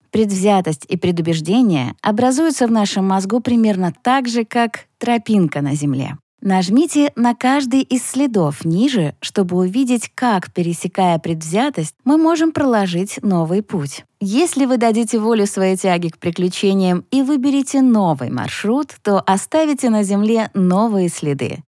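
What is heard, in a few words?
Russian elearning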